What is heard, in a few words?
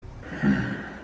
making hmm sound; geralt